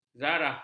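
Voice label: "zarah", Malay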